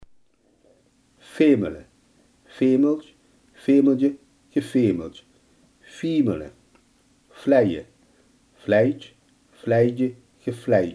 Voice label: "vleien", limburgse

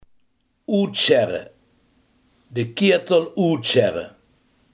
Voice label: "uitschrapen", limburgse

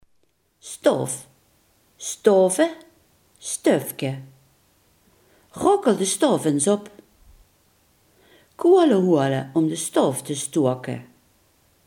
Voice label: "kachel", Limburgs

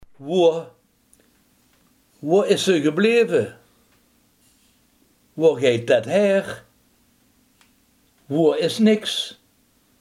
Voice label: "waar", limburgse

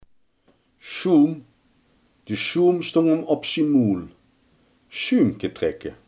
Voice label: "schuim", Limburgs

